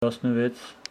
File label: Homshetsi sixteen